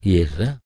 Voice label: talk, spoken, blues soul

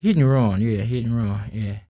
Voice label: SPOKEN, Blues Soul, TALK

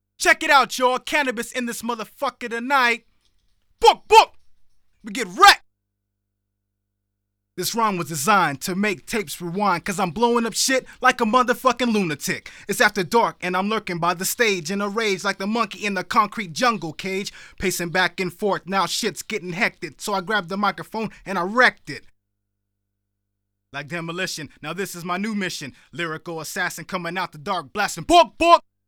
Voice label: Short Swiny, Rapsody; Masterbits CLIMAX 9

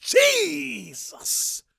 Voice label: PREACHIN, Gospel, PREACHER

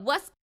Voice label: "Yeah What's Up", Yamaha DJX 2B

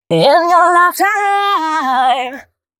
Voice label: female, Dance Ultra Voice hooklines

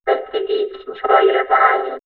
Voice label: Vocoder Let D Beat, robot vox; X-Static Goldmine

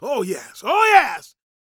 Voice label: PREACHIN, Gospel, PREACHER; ILIO; Vocal Planet

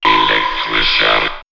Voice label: Man Machine Elektrischer, robot vox; X-Static Goldmine

